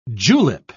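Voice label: "julep", British English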